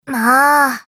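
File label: character voice Emotion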